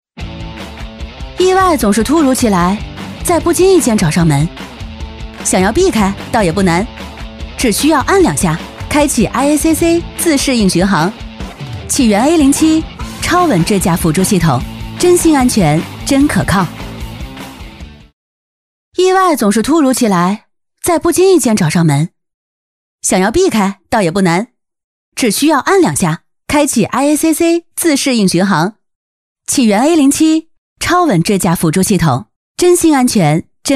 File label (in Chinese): 女-TVC汽车; 长安启源A07